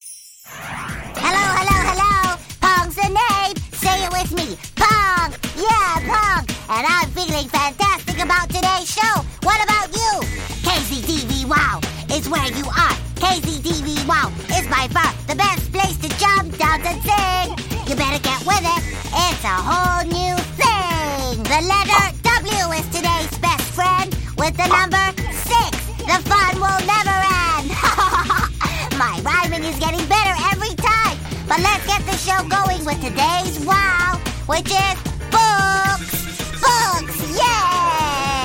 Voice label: Asian English Animation